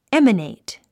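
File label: "emanate", English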